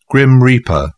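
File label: "grim reaper", British English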